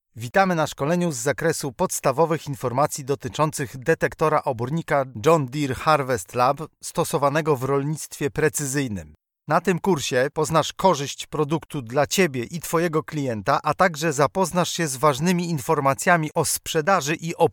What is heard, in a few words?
polish